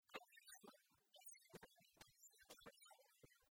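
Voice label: "аньяс лун пасйӧны рака тӧлысь кӧкъямысӧд лунӧ", Komi, female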